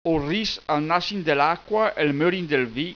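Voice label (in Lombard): Ol ris al nass in de l'cqua el mör in del vì., bergamasco; PROVERBI BERGAMASCHI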